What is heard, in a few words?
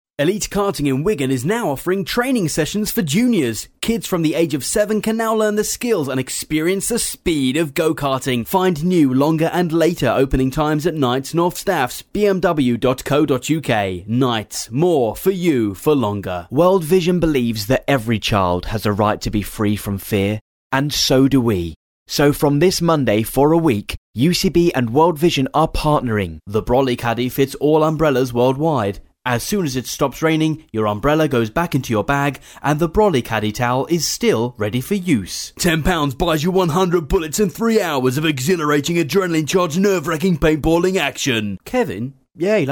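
British, voice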